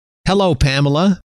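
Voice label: "hello", male; trainer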